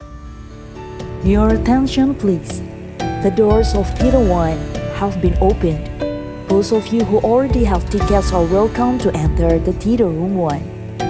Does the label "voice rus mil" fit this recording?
No